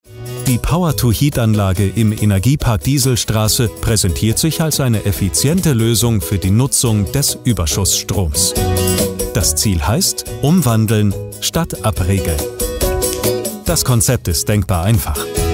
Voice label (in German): erklarfilm energieversorgung; halle